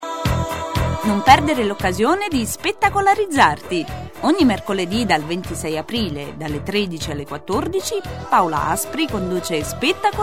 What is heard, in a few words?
PROMO